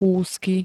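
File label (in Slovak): "úzky", Slovak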